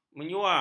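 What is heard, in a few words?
"menyua", Malay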